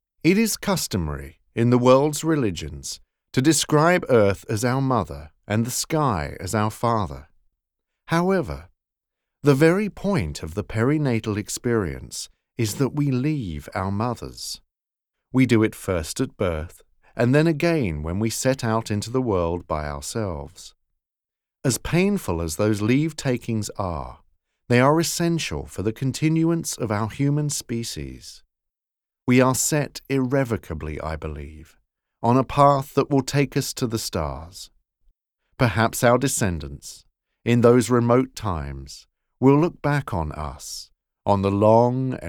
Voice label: Non Fiction Calm and Enthusiastic